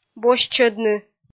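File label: "босьтчӧдны", Komi, female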